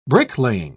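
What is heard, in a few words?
"bricklaying", English, British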